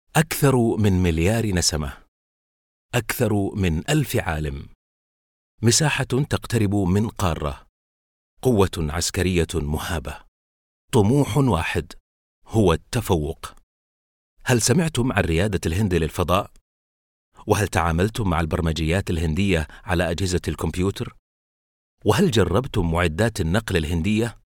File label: Arabic